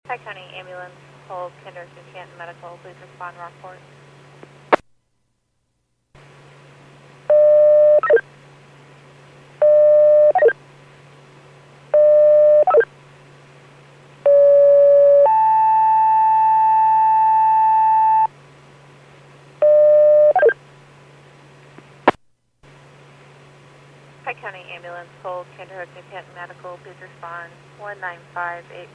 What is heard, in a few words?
PAGING